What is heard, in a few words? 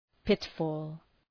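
"pitfall", English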